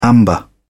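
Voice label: "amber", English, British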